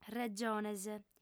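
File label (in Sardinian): "regiones", female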